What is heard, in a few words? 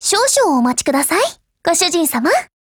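LOADING, Japanese; 88type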